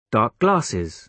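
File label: "dark glasses", British English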